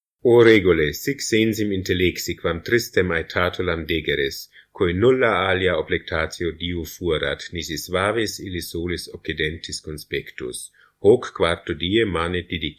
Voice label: Lateinisch Italien

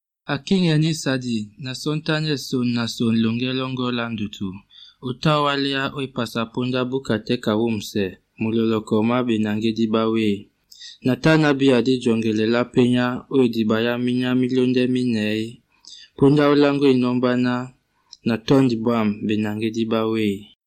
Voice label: Douala Kamerun

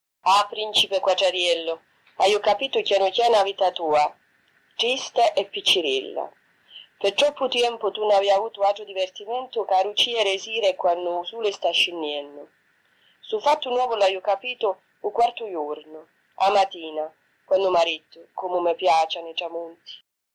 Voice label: Italienisch Cosenza

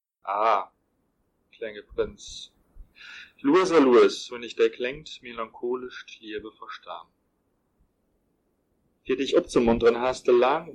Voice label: Luxemburgisch Luxemburg

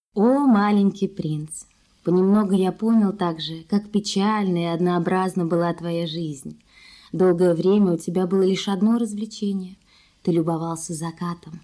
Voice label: Russisch Woronesch

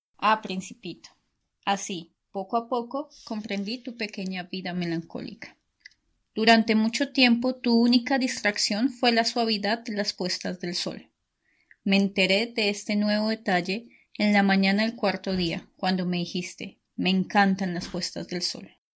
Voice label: Spanisch Bogata

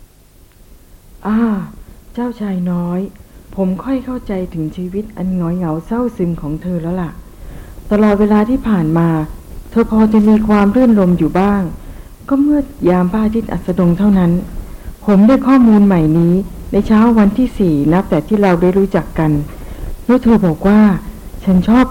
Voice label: Thai Bankok

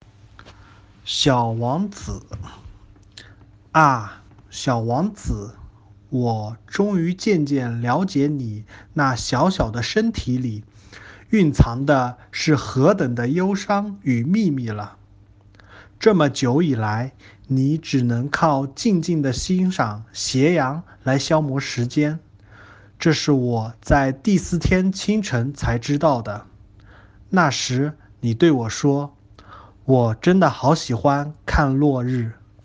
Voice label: chinesisch

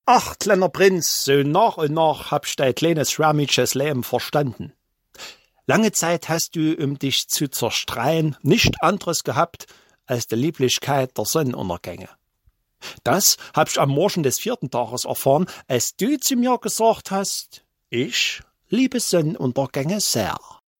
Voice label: Deutsch Chemnitz

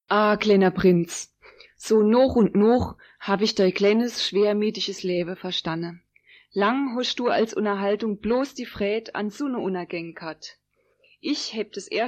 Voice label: Deutsch Landau

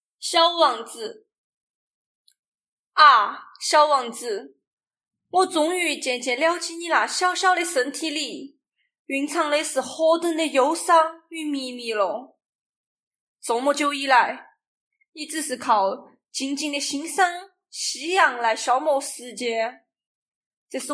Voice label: Chinesisch Sichuan

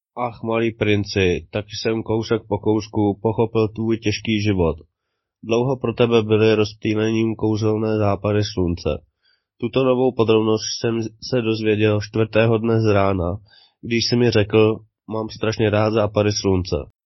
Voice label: Tschechisch, Prag

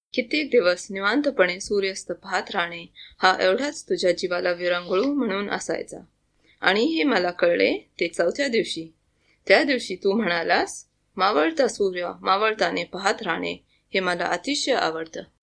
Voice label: Marathi Pune

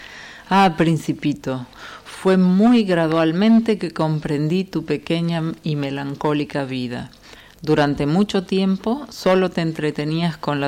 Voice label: Spanisch Buenos Aires

